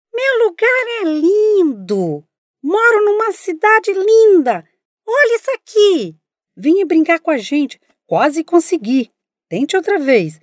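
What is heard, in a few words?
Brazilian female toy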